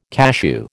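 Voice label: "cashew", American English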